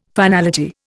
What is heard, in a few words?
"finality", Indian